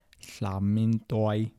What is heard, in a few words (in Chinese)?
"三呡仔", 台城, 男